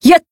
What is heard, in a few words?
attack, jp